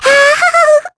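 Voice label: vox Happy, Korean; Mirianne; kingsraid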